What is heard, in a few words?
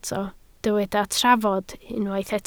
"trafod", Welsh